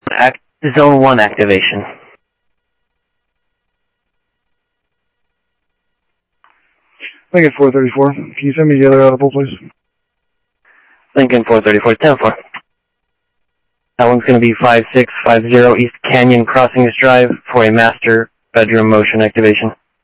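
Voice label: Sheriff East County, scanner audio trunk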